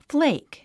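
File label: "flake", English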